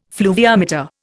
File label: "fluviometer", Indian